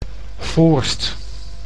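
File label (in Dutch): "Voorst", Dutch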